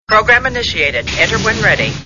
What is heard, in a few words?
computer voice, program initiated enter when ready